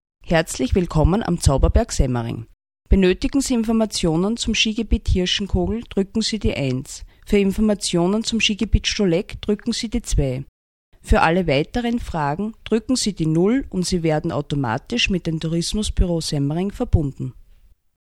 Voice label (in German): Ansage ohne Musik